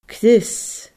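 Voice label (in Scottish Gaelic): "crios", Scottish Gaelic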